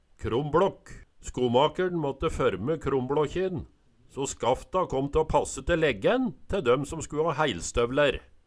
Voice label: krombLakk, Numedalsmål

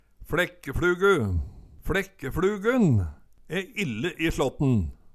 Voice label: fLekkefLugu, Numedalsmål

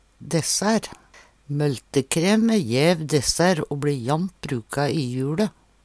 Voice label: "dessaer", Numedalsmål; Hushald, mat, drikke

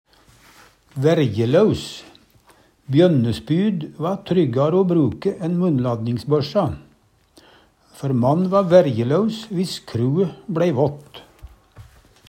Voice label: vaerjelaus, Numedalsmål; Kastereiskapet kunne og bli kalla spjut.

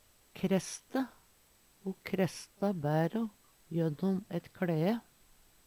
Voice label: "kresste", Numedalsmål

